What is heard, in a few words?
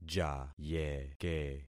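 "jayeke", concatenated syllables; Affixing experiment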